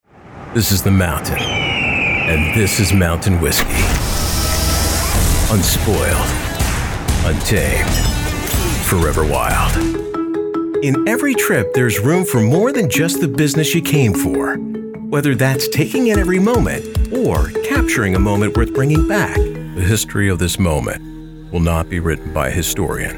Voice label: COMMERCIAL VOICEOVER

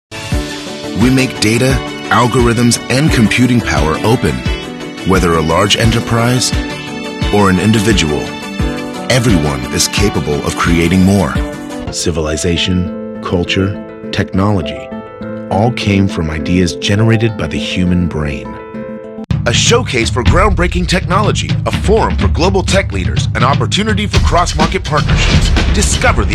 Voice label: Commercial

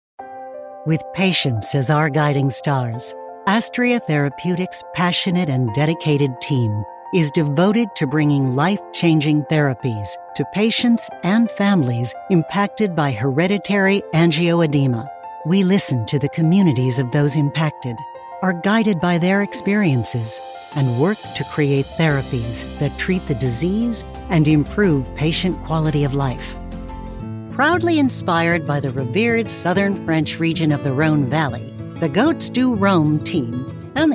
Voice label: CORPORATE; CORPORATE DEMO

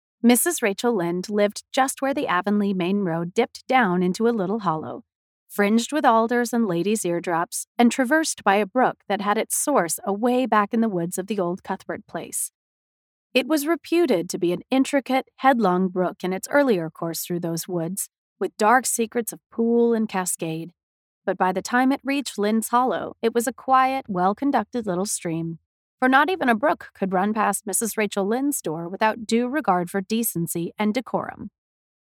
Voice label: Audiobook

